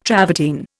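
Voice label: "travertine", Indian English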